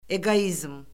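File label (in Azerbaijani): "eqoizm", Azerbaijani; Orfoqrafiya Lüğəti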